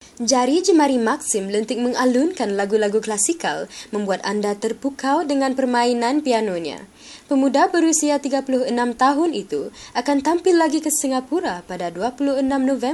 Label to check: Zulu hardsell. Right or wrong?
Wrong